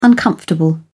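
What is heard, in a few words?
"uncomfortable", British English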